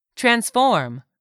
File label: "transform", English; 3000 words